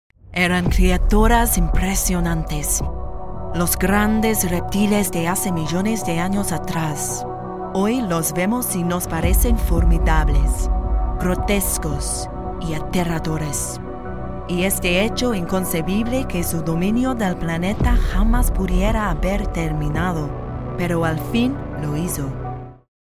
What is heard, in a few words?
Spanish DINOSAURIOS